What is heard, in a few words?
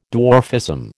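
"dwarfism", American English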